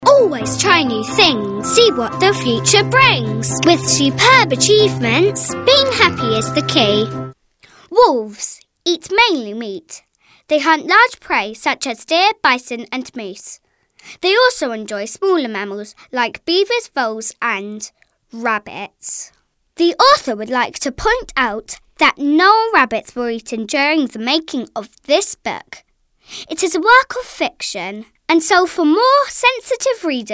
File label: Kidztalk